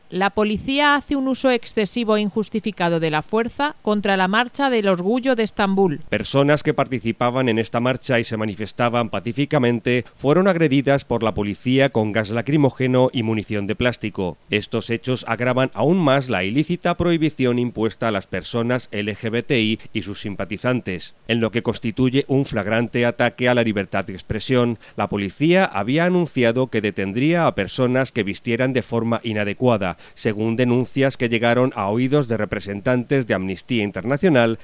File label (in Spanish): informativo; turquia uso excesivo de la fuerza contra marcha orgullo